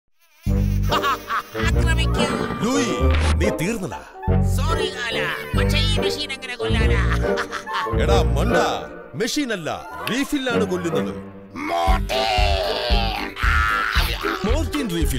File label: malayalam